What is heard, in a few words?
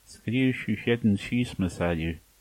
write, manx